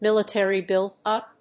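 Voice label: "military buildup", English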